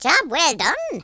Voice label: "flawless", Irish; speech